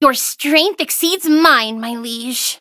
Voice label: defeat, en; Cookie Run

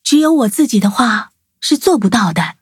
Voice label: levelup zh-hant; Cookie Run

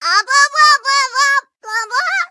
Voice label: levelup en; cookie run

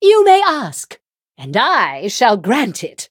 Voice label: level up, en; cookie run

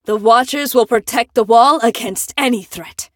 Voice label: levelup en

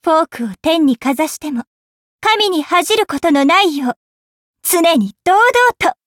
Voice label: levelup ja; Cookie Run

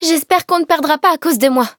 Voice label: battle, fr; Cookie Run

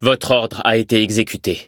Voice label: victory, fr; Cookie Run

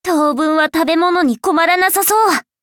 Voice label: victory, ja; Cookie Run

